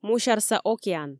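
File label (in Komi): "мусярса океан", Komi, female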